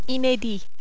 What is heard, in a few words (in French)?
"inedit", French